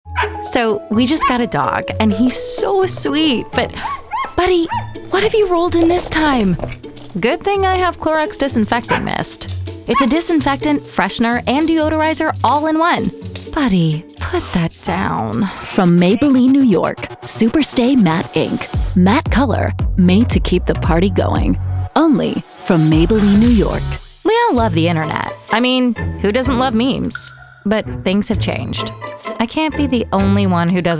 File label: USA Comm